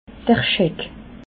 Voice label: "scheck", alsace

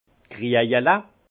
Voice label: "kriejel", alsace; pichet

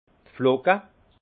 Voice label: "flocke", alsace; flocons; vin

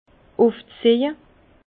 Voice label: "ufzieje", Bas Rhin; vin; élevage

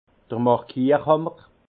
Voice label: "markierhammer", alsace; vin; marqueur de fût

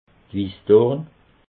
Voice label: "wissdorn", alsace

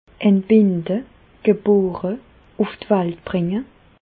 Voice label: "entbinde", Bas Rhin; medecine; accoucher

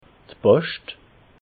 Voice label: "poscht", alsace

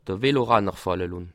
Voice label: "veloranner", Alsace

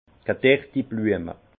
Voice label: "gedertiblueme", Alsace; fleurs fanées; vin

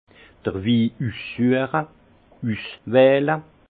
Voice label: "ussueche", alsace; vin; choix des vins

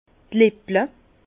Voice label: "lippel", Bas Rhin; vin; lèvres

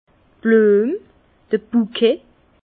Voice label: "bluem", Bas Rhin

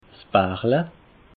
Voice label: "bachla", alsace

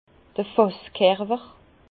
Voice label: "fasskerwer", Bas Rhin; vin; corps du fût

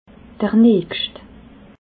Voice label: "nacht", alsace

